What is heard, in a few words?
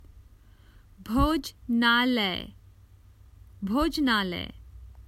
Common Hindi Phrases for travel the restaurant